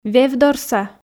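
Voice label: "вевдорса", Komi, female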